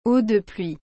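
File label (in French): "eau de pluie", French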